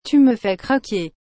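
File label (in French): "Tu me fais craquer", French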